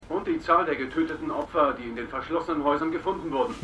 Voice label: TV Nachrichtensprecher; The Wire

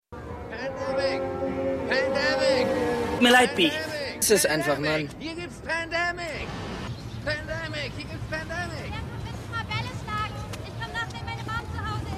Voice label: Verkaeufer Maedchen; Pandemic Verkaeufer; The Wire

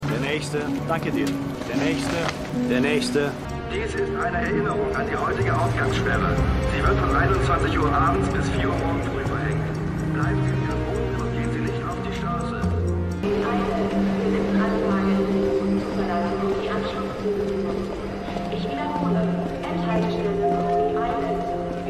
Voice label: Muellsammler Durchsage Bahnhofsdurchsage Kinodurchsage

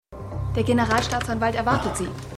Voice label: Vorzimmerdame; Five Days at Memorial

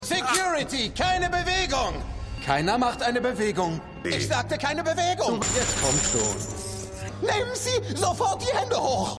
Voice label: Wachmann, Stimmproben; The Flash

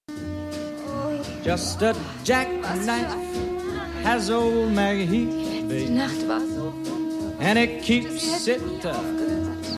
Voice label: Frau Beim Yoga; Was Frauen wollen

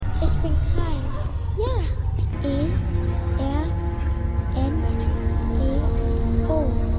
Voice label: Kind, Stimmproben; Josephine; The 100

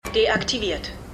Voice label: Computerstimme; Felicitys; Arrow

